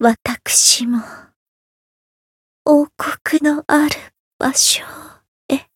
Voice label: retire jp; sincere

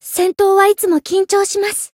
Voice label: Voice win jp; saki

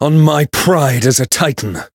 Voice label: chant

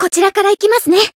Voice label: Voice limit break jp; saki

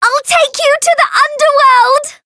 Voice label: chant

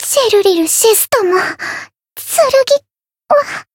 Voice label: retire, jp; thillelille

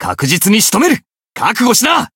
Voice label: limit break, jp

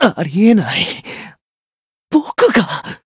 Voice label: retire, jp

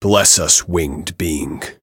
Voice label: variable chant

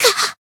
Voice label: damaged, jp; buria

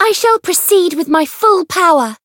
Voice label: voice chant

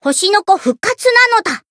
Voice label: healed, jp

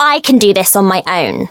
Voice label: Voice variable chant

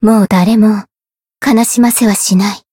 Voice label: chant

